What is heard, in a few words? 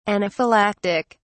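"anaphylactic", British English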